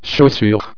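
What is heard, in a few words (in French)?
"chaussure", French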